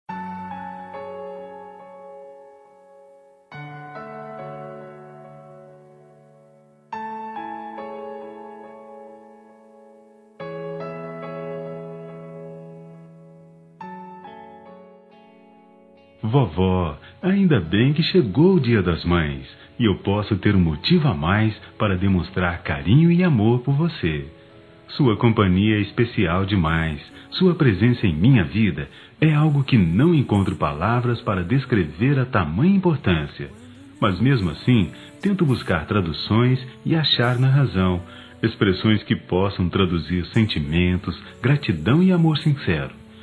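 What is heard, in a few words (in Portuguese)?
Mãe evangelica, Masculino; Dia das Mães; Telemensagens 2017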